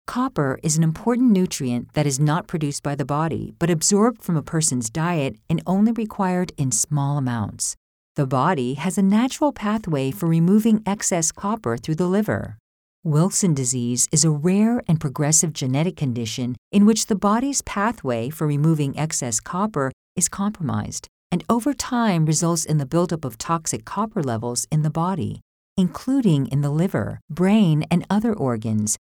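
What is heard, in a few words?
English, American, raw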